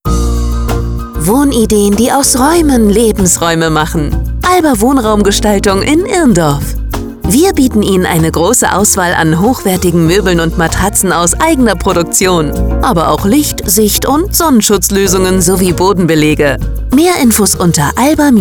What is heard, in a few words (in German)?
Funkspot; SEEFUNKA; Moebel Alba